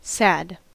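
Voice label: "sad", American English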